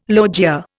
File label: "logia", Indian